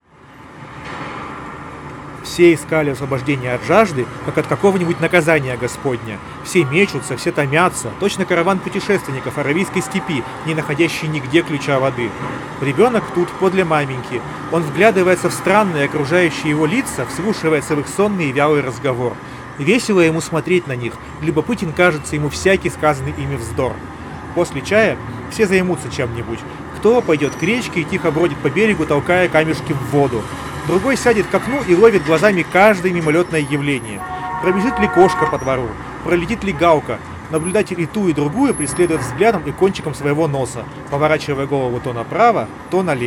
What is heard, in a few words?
Recorder noise; xiaomi buds 6